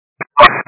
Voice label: 122 925MHz, scanner radio